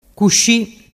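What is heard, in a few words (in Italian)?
"cusci", Vernazzese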